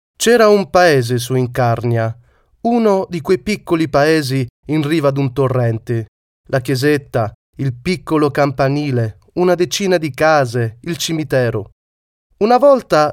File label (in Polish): Lektor wloski; Studio Nonagram